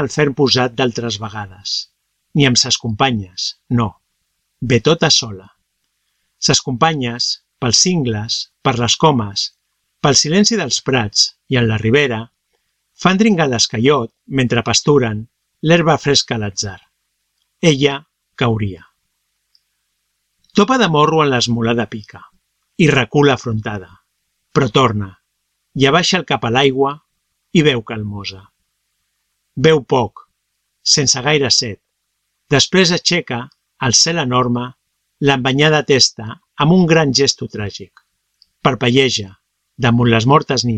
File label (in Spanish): Poema catalan La vaca cega